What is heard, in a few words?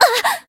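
damaged, Japanese; niike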